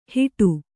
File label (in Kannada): "ಹಿಟು", Kannada